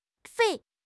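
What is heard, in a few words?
"番", Mandarin Chinese; HSK; HSK6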